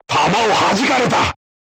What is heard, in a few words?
voice message armor not pierce, crew tanks japanese